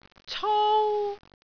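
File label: "cou1", Cantonese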